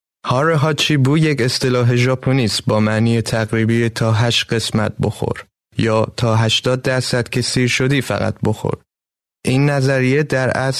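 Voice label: Farsi, male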